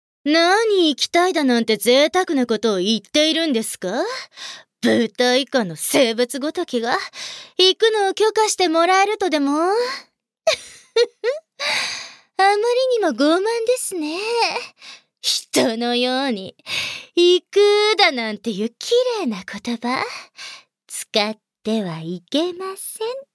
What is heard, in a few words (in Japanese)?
トドメボイス